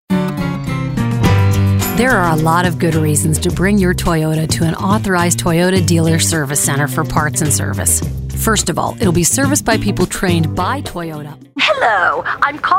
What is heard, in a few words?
Commercial; voice-over